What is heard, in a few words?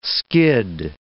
"skid", English